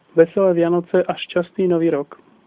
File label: christmas slovak